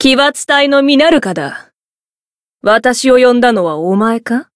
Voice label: Voice story jp; minalca